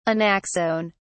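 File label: "anaxone", English, British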